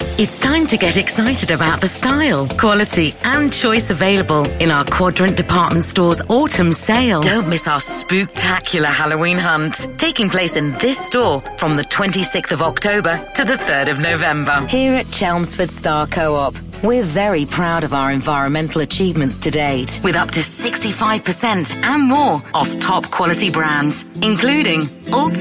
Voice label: Instore Reel